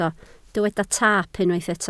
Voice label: "taap", Welsh

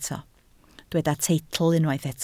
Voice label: "teitl", Welsh

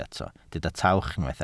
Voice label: "tawch", Welsh

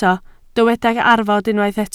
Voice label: "arfod", Welsh

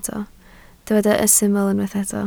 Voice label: "syml", Welsh

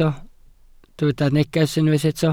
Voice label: "neges", Welsh

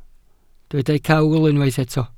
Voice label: "cawl", Welsh

